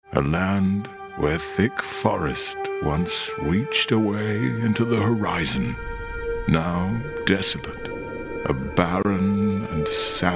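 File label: uk acting gaming, British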